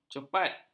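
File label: "cepat", Malay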